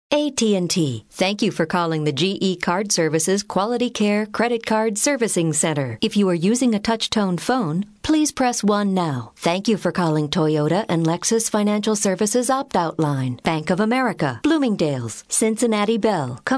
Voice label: ivr